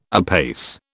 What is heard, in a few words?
"apace", British